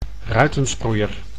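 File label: "ruitensproeier", Dutch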